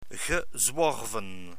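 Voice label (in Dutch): "gezworven", Dutch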